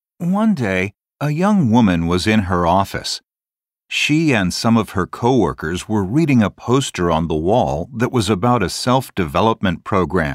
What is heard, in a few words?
Speaking Model Narration